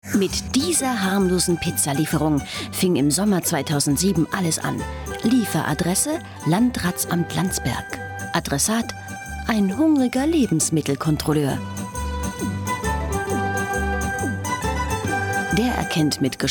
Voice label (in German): feature tv; pizza posse